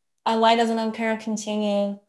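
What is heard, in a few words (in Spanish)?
Voice Acting es F, Spanish, female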